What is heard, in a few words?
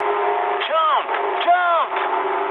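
English bailout mood med; War Thunder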